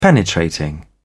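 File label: "penetrating", English, British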